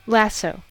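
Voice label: "lasso", American English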